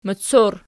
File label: "moccor", Archi